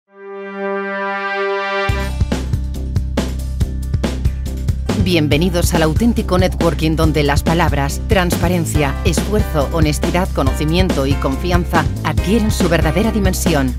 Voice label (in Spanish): Video Corporativo; KCN